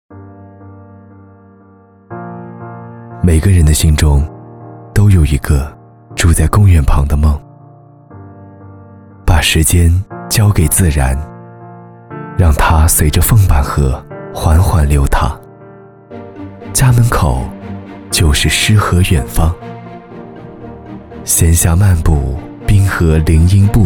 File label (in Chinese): 专题质感 公园